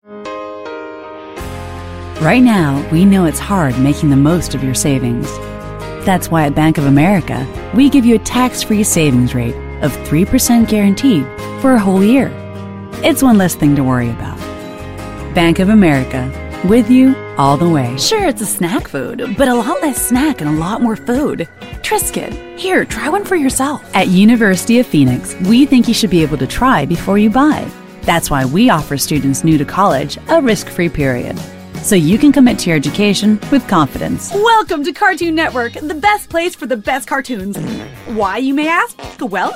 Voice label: English US